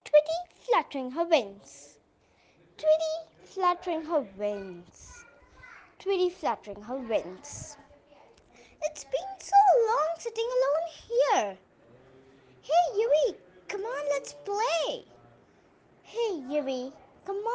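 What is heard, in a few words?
English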